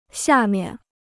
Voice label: "下面", Chinese

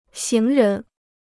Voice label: "行人", Chinese

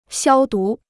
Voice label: "消毒", Chinese

